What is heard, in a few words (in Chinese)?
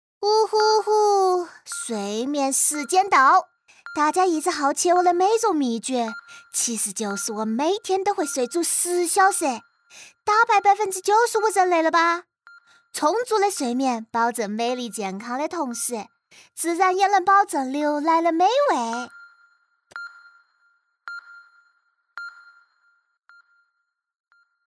四川方言 女 四川话; 方言配音; 奶牛的冷知识